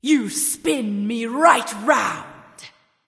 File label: vox emote; smite; arachne